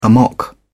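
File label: "amok", English, British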